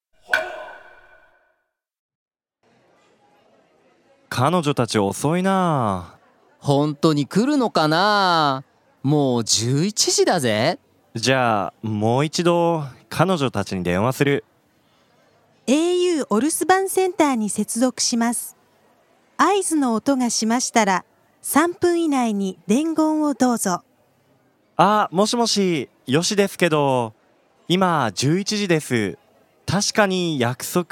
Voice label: dialog; JapanesePod101; Beginner Season 1